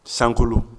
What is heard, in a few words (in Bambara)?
"sankolo", Bambara; nature